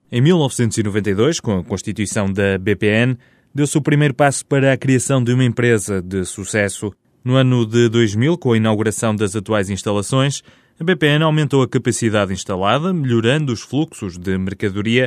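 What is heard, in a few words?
Portuguese; Male, 20s-30s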